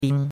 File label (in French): "bing1", chinois; Cours-de-Chinois